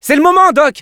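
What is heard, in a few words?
Scout activate charge, French; C'est l'moment doc !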